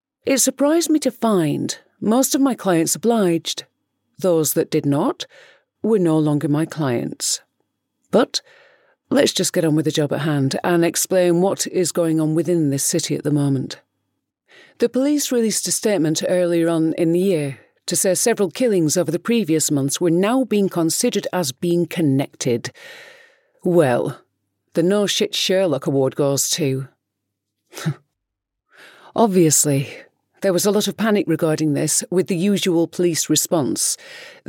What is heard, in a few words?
audiobook